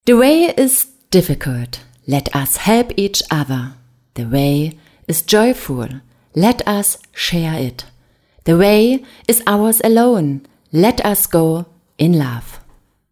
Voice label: englisch; hochzeitsrednerin